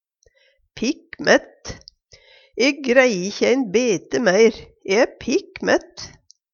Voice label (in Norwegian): pikk mætt, Numedalsmål; stappmett, fylt; E greie ikkje ein bete meir, e æ pikk mætt.; Uttrykk